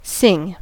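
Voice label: "sing", American English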